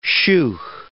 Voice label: "sheugh", words